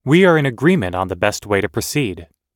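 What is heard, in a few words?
everyday agreeing, American English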